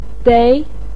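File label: "dei" Mandarin Chinese, second tone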